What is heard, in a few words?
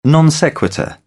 "non sequitur", British